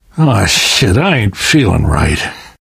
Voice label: going to die light, left4dead2 survivor voice namvet; source engine